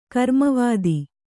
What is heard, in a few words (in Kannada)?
"ಕರ್ಮವಾದ", Kannada